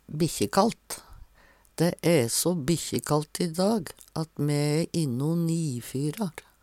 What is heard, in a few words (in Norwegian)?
bikkjekallt, Numedalsmål; Dæ æ so bikkjekallt i dag at me æ inne o nIfyrar.